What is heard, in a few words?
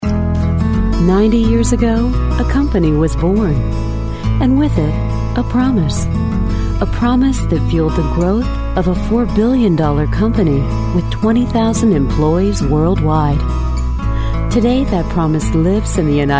narrative